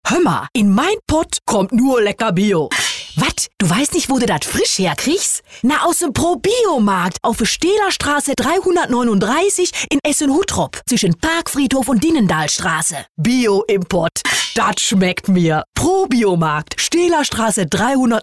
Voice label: Dialekt Ruhrpott

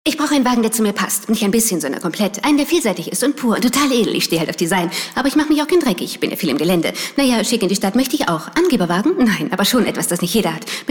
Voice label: Aufgeregt

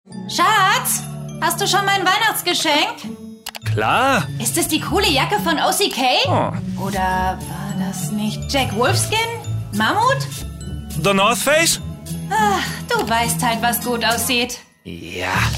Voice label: Werbung Neutral

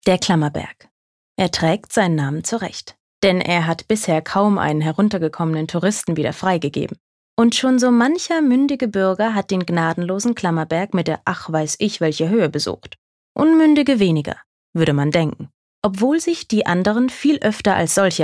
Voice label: Kommentar Neutral

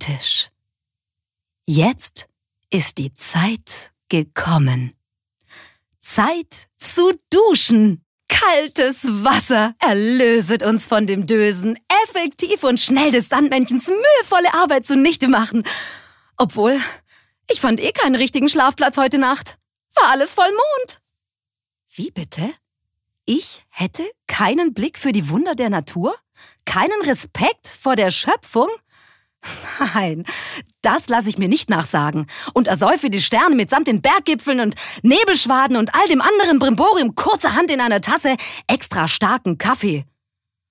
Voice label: Schauspiel Variabel

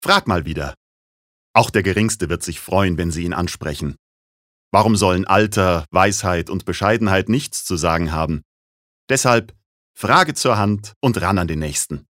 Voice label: Werbung, Dynamisch

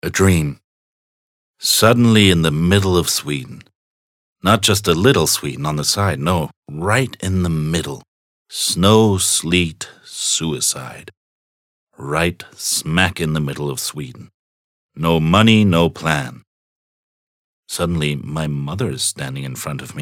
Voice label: Eng US Schauspiel Sanft

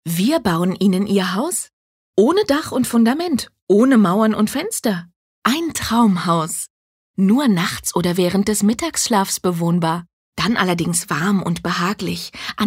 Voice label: Werbung Freundlich

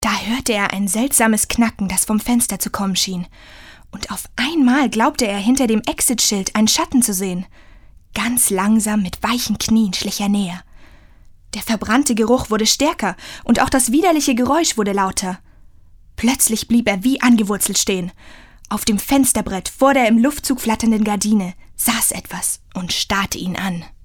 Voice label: Schauspiel Neutral

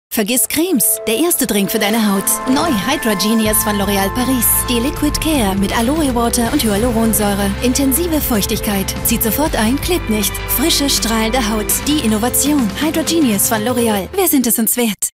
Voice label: Dynamisch; LOreal

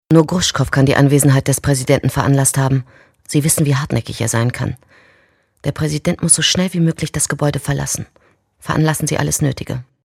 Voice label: Fremdtake Neutral

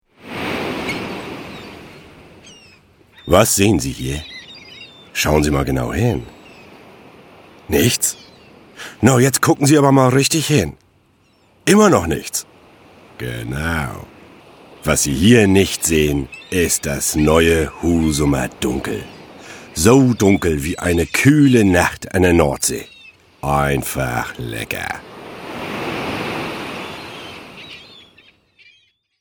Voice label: Werbung Dynamisch

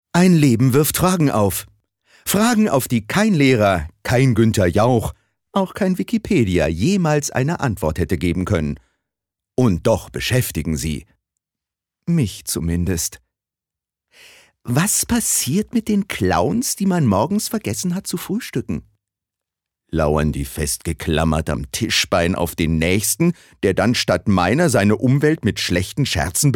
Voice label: Schauspiel Lebendig

